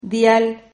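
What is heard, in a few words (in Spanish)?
"dial", Spanish syllable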